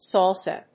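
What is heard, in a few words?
"salsa", English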